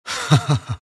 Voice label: happy; skywrath mage